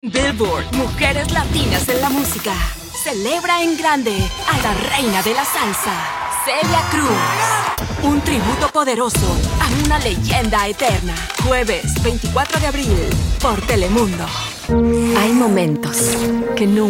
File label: spanish promos; Warm, inviting, and genuinely engaging voice that instantly connects with listeners.